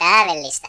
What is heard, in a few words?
"flawless", Finnish; Worms Armageddon